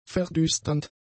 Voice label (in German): "verdüsternd", German; adjectives